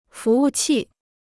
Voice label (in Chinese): "服务器", Chinese